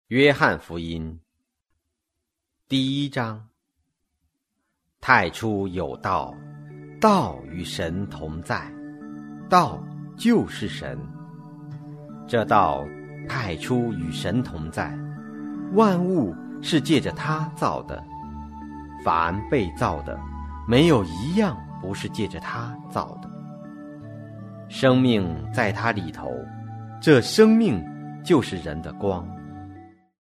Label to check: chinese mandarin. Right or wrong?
Right